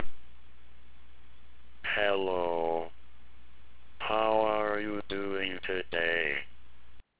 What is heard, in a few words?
Slow Fred